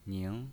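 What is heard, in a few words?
"ning" second tone, pinyin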